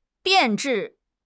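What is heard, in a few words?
"变质", Chinese; HSK6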